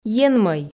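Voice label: "енмӧй", Komi, female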